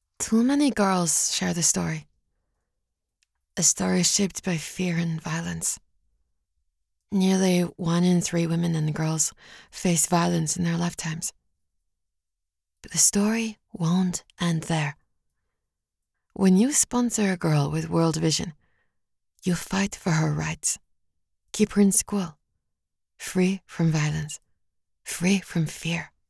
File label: english international; voice actor